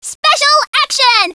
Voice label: "Special action!" High Female Voice; Knights